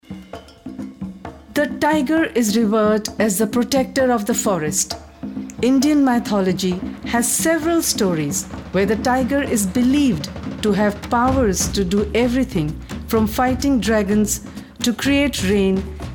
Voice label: female voice